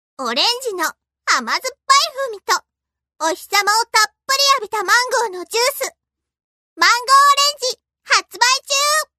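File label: narration female power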